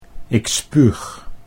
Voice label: "ik spuug", Dutch

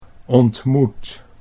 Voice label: "ontmoet", Dutch

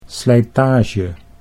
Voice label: "slijtage", Dutch

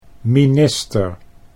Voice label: "minister", Dutch

